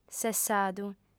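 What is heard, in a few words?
"sessadu", female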